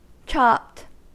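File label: "chopped", English, US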